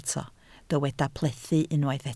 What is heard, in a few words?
"plethu", Welsh